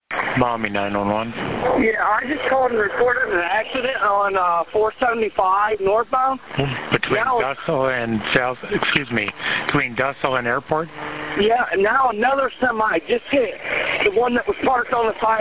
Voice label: 9-1-1 call First caller reports second crash plowed right through them; I-475 wreck